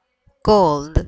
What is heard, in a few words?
cold